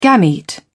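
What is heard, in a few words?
"gamete", English, British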